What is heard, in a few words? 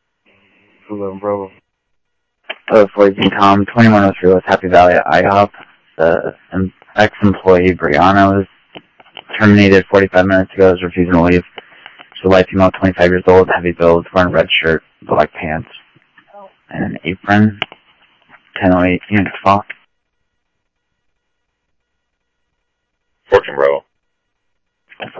Phoenix PD, scanner audio